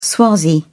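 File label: "swazi", British English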